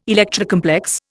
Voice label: "Electra complex", Indian